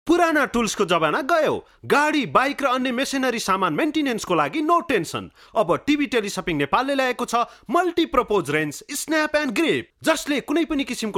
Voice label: Nepali